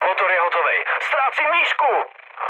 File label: damaged engine, speech cze, mood high; War Thunder